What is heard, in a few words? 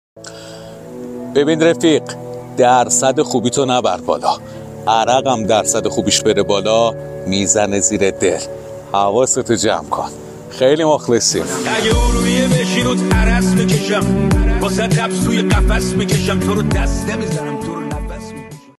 narration